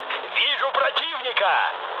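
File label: Russian enemy detected mood high, speech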